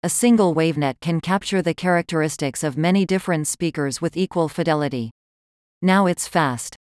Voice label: us english voice